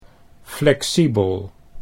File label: "flexibel", Dutch